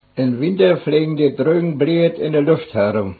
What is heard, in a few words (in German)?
mittelpommersch